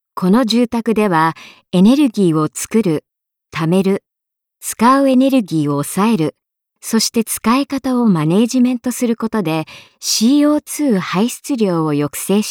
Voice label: Japanese narration

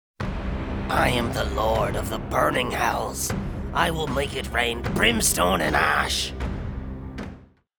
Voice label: Demon Voice Character Voice Gutteral Angry Evil

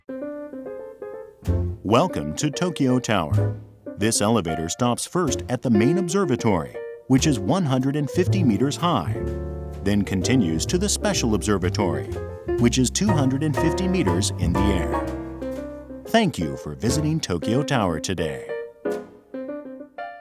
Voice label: Tokyo Tower Elevator Announcement

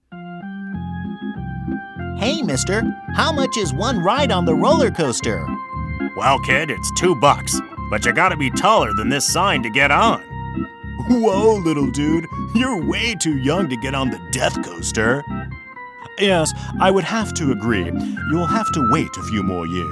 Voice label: Childrens Show Character Voices

